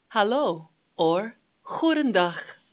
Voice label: Dutch, hello